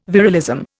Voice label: "virilism", Indian